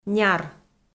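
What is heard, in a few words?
"няр", Komi, female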